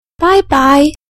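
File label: "bye", English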